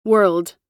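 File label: "whirled", American English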